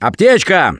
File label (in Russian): Spot First Aid, left4dead2 russian, Survivor Voice; Gambler